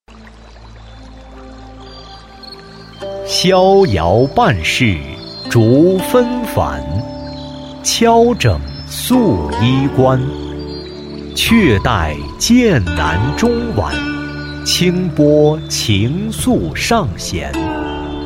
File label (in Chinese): 男 诗歌朗诵 自述 旁白 朗诵